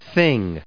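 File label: "thing", English, us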